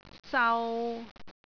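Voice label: "sau3", Cantonese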